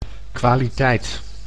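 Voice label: "kwaliteit", Dutch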